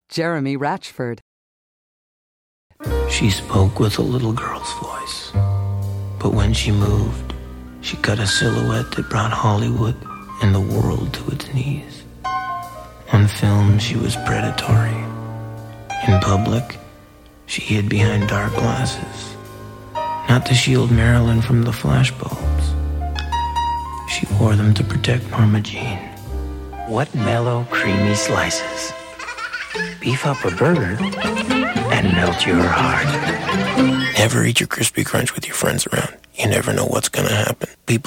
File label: commercial; Innovative LA